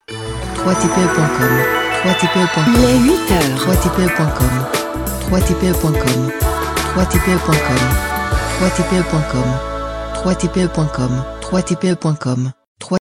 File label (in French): top horaire voix femme; top horaire voix femme avec une annonce musicale heure par heure; pack top horaire voix femme; pack jingle